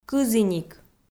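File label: "кызіник" Komi, female; kpv audio dict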